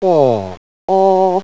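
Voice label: open back rounded; london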